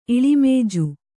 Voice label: "ಇಳಿಮೇಜು", Kannada

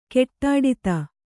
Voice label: "ಗಡ್ಡಾದ", Kannada

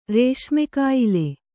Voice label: "ರೇಷ್ಮೆಕಾಯಿಲೆ", Kannada

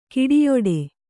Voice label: "ಗೆಡೆಯಾಟ", Kannada

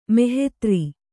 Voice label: "ಮಹೀಧ್ರ", Kannada

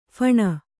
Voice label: "ಫಣೆ", kannada

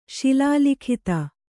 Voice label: "ಶಿಲಾಲಿಖಿತ", Kannada

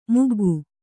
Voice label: "ಮಗ್ಗ", Kannada

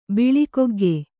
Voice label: "ಬಿಳಿಕೊಗ್ಗೆ", Kannada

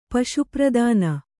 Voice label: "ಪಶುಪ್ರದಾನ", Kannada

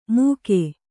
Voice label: "ಮೂಕೆ", Kannada

